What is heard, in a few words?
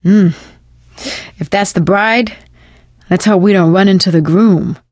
wedding witch dead, survivor voice; Left 4 Dead 2; Source Engine; video games; producer